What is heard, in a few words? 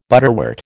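"butterwort", American English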